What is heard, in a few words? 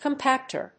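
"compactor", English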